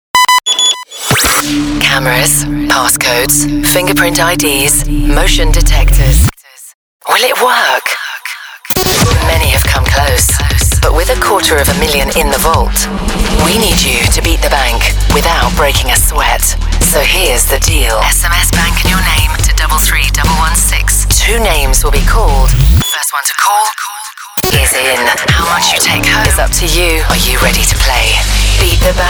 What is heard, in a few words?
Promo Radio; Beat the Bank; East Coast Radio South Africa